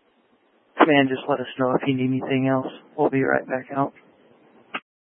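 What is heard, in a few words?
Incident Tac 2, Scanner audio recording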